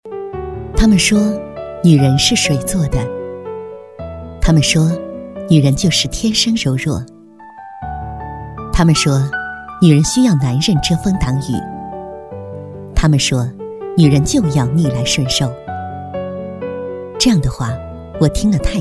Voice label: 女 旁白 独白

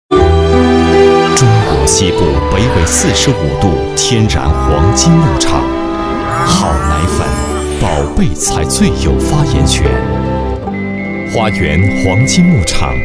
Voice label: 男 广告; 央视《绿色生态篇》黄金牧场

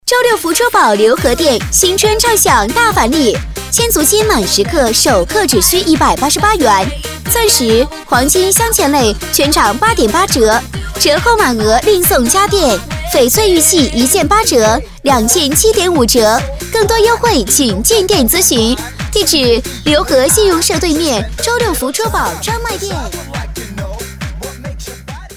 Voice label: 女 促销 珠宝促销; 周六福

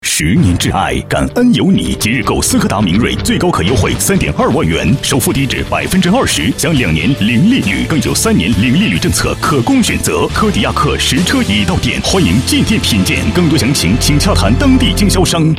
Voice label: 男 广告; 斯柯达

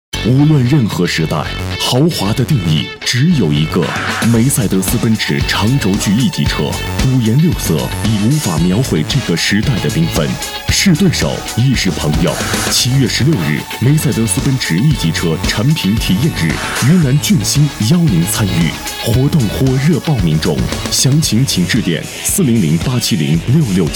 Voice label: 男 广告; 奔驰汽车B

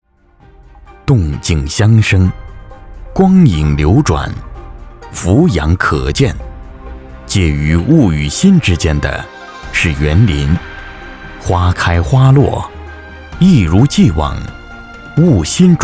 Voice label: 男 纪录片 园林解说

